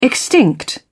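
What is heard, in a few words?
"extinct", British English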